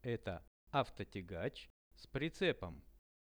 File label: "eto avtotiagach s pricepom", Russian; Это автотягач с прицепом.; transport; visual-dictionary